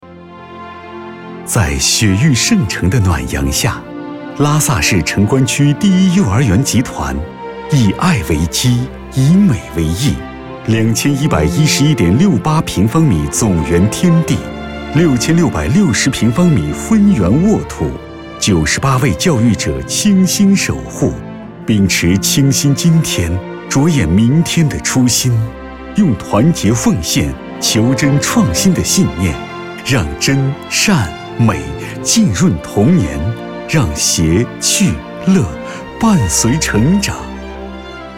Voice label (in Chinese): 男 幼儿园宣传片